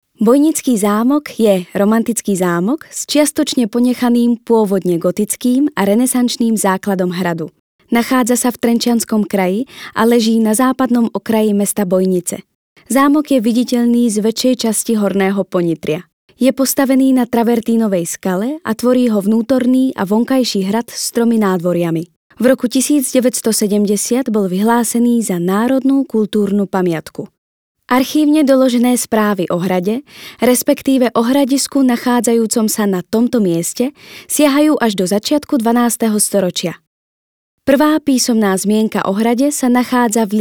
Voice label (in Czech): ukázka slovenština